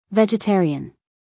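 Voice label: "vegetarian", American English, female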